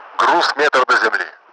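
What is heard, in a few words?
one to ground, Russian; Mi-8MT; DCS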